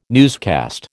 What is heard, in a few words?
"newscast", American